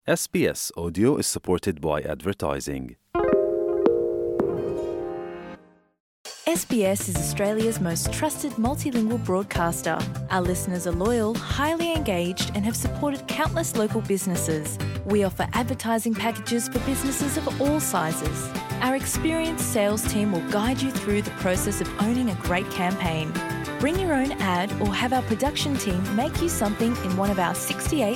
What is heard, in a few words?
cantonese vox pop; sbs